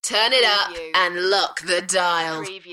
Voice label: UK female, Turn it up and lock the dial; beanstalk audio

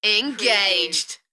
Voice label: UK female "Engaged" Enthusiastic; beanstalk audio

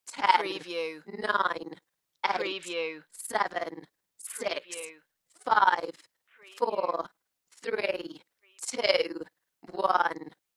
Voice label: UK female Countdown 10 to 1 Dalekness; beanstalk audio

